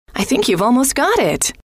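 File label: i think you've almost got it, female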